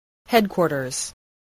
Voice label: "headquarters", English, spelling